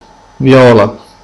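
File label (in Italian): "viola", Sicilian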